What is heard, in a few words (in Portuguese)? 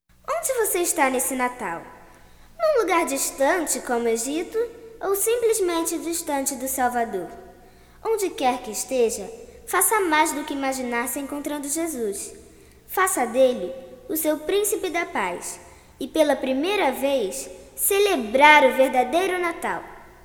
Narração